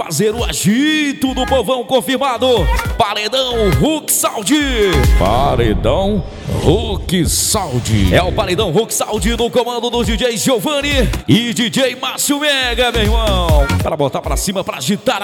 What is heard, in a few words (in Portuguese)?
festa gravacao estilo ao vivo locutor